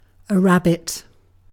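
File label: "rabbit", British English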